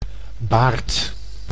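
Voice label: "baard", Dutch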